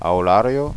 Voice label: "aularia", gasconha

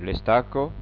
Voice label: "estaca", gasconha

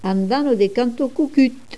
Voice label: "and cantacocut", gasconha

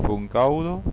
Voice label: "foncauda", gasconha

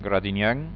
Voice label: "gradinha", Gascon

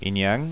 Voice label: "inian", gasconha

